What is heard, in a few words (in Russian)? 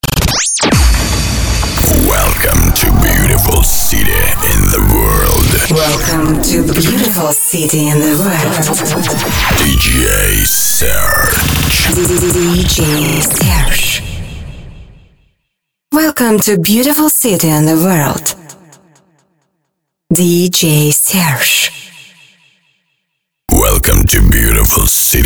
DJ Двухголосный